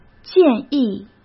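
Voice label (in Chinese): "建议", Mandarin Chinese; hsk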